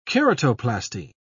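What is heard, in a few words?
"keratoplasty", British English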